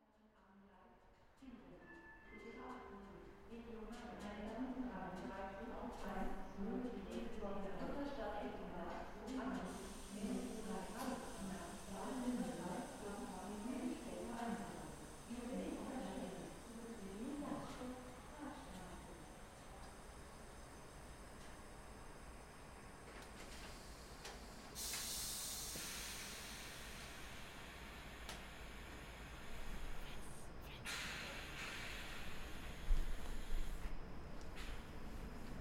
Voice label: hauptbahnhof announcements long